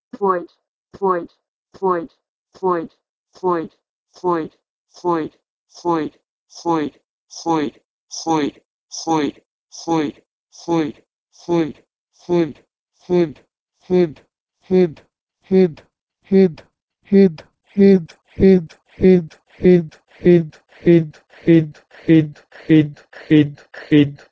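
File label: Proto-Indo-European "swoid" to Iron "xid"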